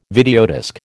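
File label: "videodisc", American English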